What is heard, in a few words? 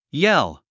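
"yell", English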